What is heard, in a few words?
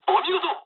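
Japanese player killed enemy mood low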